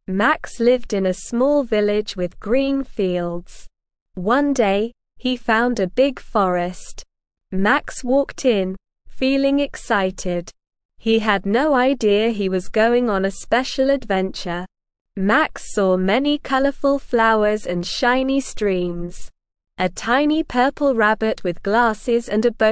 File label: ESL Short Stories for Kids Beginner SLOW Reading; The Adventure in the Hidden Hollow